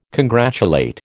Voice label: "congratulate", American